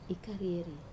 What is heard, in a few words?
"karieri", urinate, Wamesa